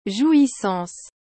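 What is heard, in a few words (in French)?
"jouissance", French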